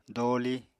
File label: "dooli", apatani